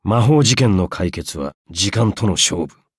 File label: levelup ja; cookierunwiki